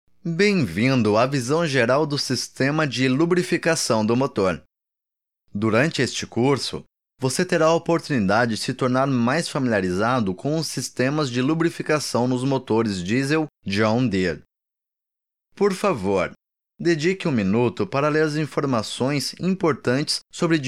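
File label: Portuguese, Brazilian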